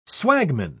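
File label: "swagman", British English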